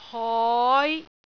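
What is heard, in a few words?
"hoi5", Cantonese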